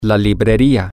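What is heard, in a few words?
the book store, Spanish, male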